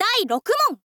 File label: "dairokumon", girl; voice